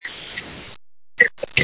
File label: call recording